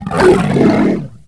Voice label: wookiee angry, creatures; Star Wars Obi-Wan; XBOX